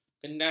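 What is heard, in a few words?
"kendal", Malay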